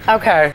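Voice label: "okay", female voice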